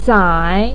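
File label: "sai", Mandarin Chinese, third tone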